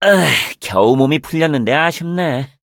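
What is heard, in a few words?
victory, Korean; mala longxia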